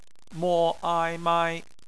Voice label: "mai", Chinese; phonetics